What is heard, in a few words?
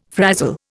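"frazzle", Indian English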